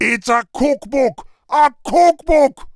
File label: Angry; warcraft3; Witch Doctor